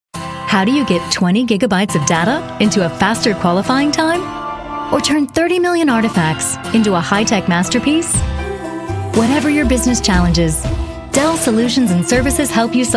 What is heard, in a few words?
English US